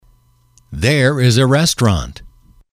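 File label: "there is a restaurant", English, male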